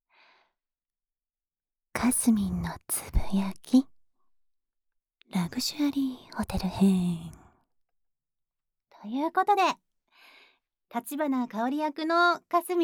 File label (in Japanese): フリートーク, ASMR; Luxury Hotel編; ラグジュアリーホテル フロントクラークのエッチなラグジュアリーサービス; KU100